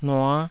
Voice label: "nua5", Taiwanese